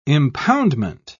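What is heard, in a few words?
"impoundment", British English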